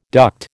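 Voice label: "duct", American English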